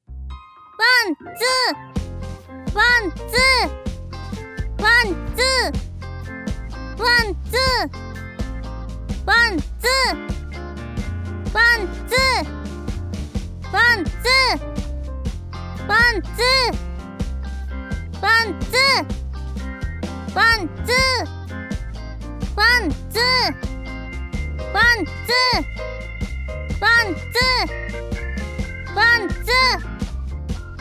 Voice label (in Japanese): ワンツーボイス, ASMR